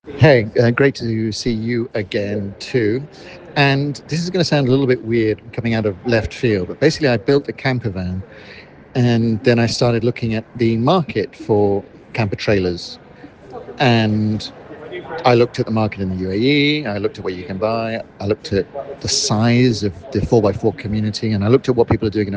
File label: voice note